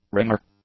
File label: "ringer", English; nouns